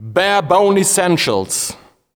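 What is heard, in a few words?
vocal male, Dance Vocals, spoken words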